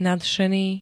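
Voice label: "nadšený", Slovak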